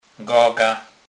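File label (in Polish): "gaga", Kurpie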